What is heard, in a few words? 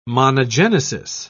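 "monogenesis", English, British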